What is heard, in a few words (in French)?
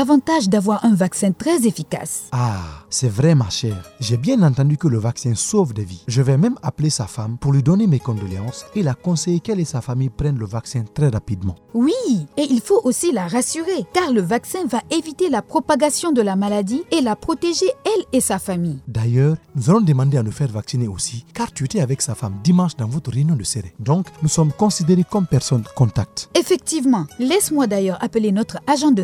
spot Ebola vaccination guinee francais